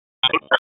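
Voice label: Raleigh Police Northeast District Tactical, p25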